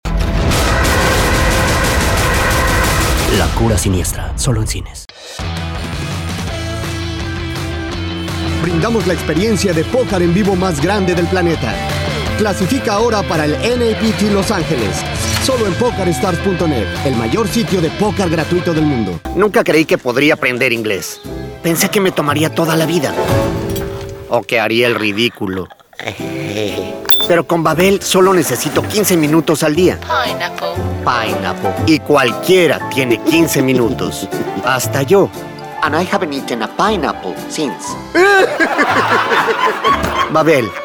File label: Spanish, mexican